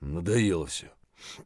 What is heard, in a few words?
mutter, voice; Escape from Tarkov